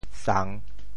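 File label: "sang3", Teochew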